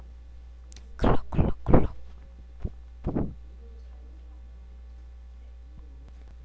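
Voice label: "clock", spoken word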